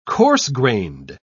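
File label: "coarse grained", British English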